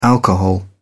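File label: "alcohol", British English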